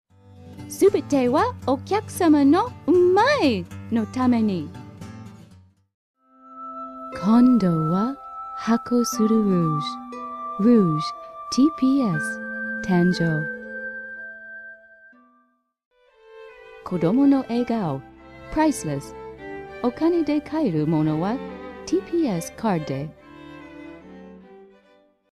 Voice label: Japanese; USA